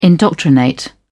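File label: "indoctrinate", British English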